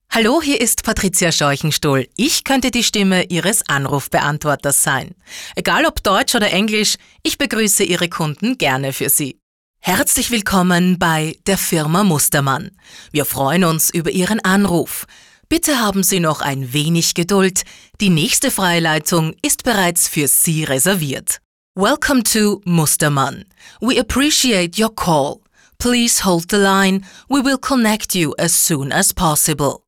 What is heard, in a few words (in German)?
Telefonansagen